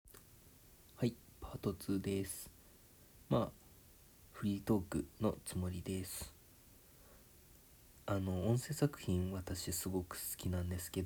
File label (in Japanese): だらだら フリートーク